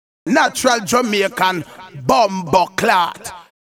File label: Natural jamaican bomboclat (elephant); DOWNTOWN JINGLES